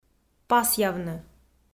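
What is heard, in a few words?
"пасъявны", Komi, female; audio dict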